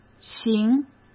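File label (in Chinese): "行", Mandarin Chinese; hsk; chinese-flashcards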